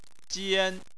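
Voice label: "jian", Chinese, first tone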